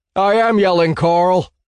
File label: I am yelling